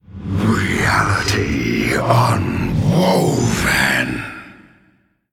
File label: Male Elemental Death